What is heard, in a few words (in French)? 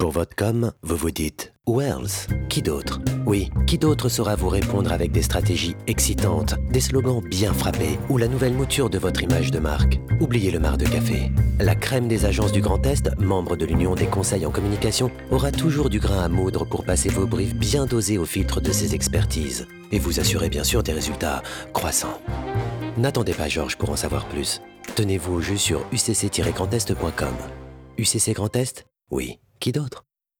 Spot Version Homme; UCC